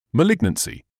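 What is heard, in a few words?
"malignancy", British English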